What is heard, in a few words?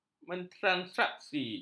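"mentranskripsi", Malay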